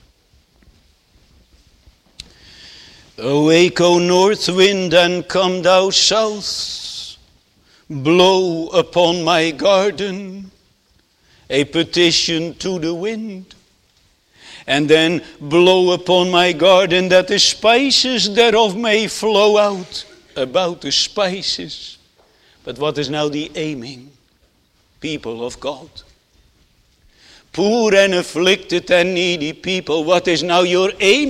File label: Sermon Continued; Netherlands Reformed Congregation, St. Catharines, ON